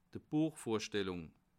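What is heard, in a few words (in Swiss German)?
"buechvorstellung", Alsatian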